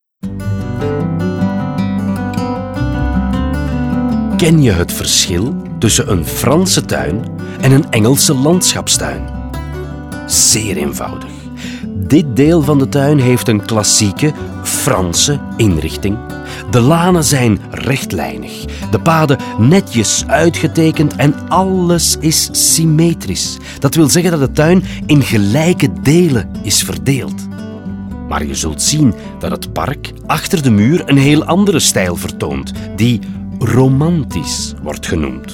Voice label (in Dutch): audioguide nl enfant; modave